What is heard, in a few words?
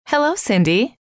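"hello", female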